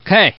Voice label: Man